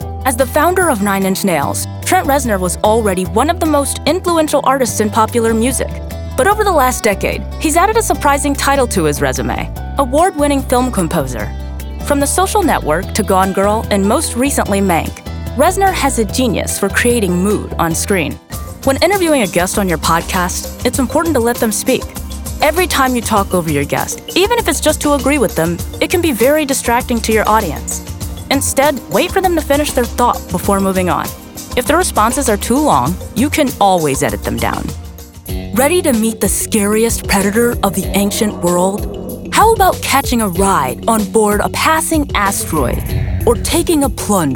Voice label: Narration